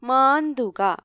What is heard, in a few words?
"scratch", ml; gcompris